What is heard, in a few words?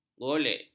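"golek", Malay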